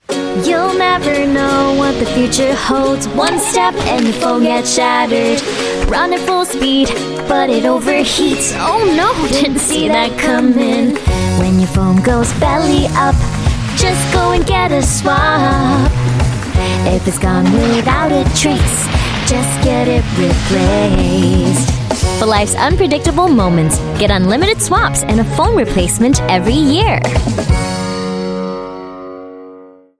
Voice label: Asian English mobile; Singtel; Swop

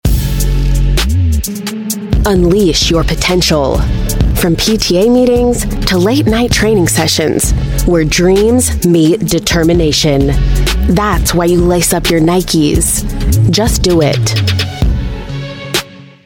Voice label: US English; Nike

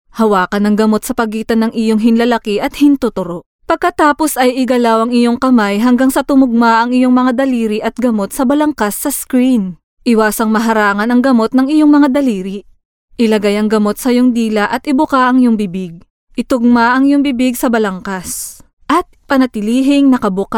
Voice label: Tagalog Voice Sample

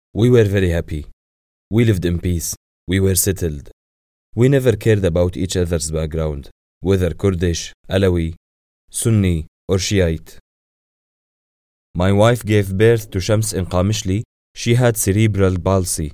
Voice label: Arabic English

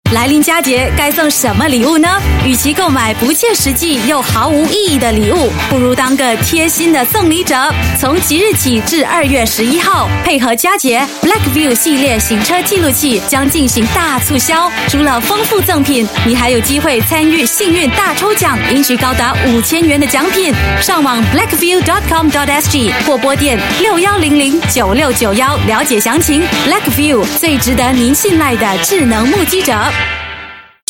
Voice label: Mandarin; Wow Gadgets

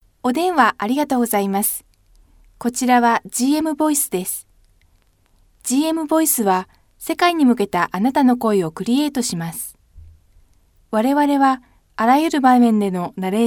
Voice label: Japanese IVR

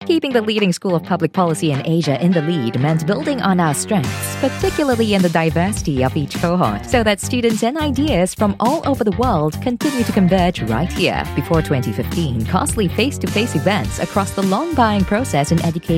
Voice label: Asian English video; Lee Kuan Yew School of Public Policy

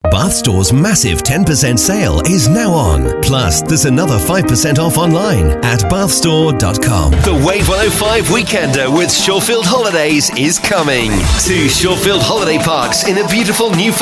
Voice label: UK English commercials

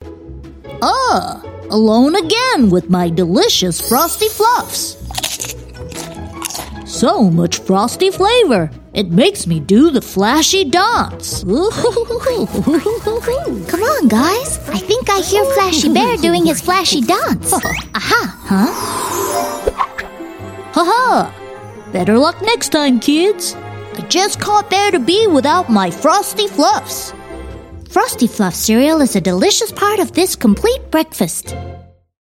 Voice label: Asian English Character; Frosty Fluffs

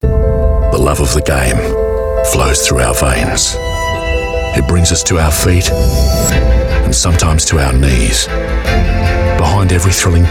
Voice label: AU English; Blue-Shield

